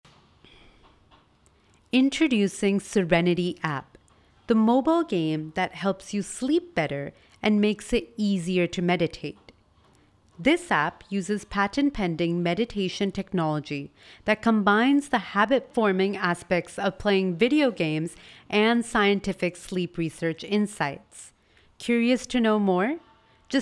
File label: promo